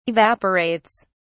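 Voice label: "evaporates", British English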